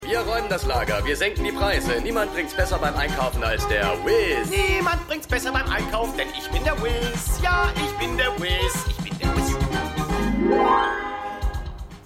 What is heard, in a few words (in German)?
Werbesprecher, Stimmproben; Seinfeld